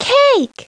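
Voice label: "cake", English; Treasure Galaxy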